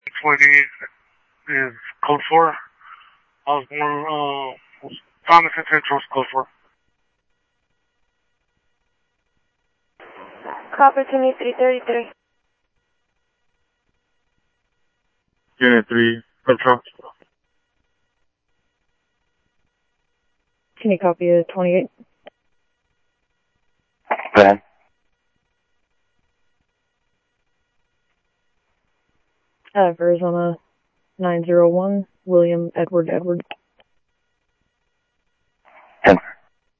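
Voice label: Light Rail Security Light Rail, scanner audio trunk; ThompsonPeakHigh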